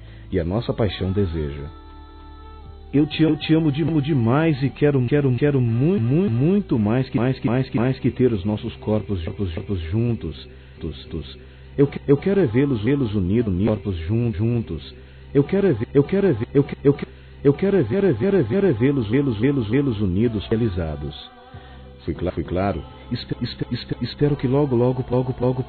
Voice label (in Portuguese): Romantica Sedução Desejo Tenho Você Masculino, Telemensagens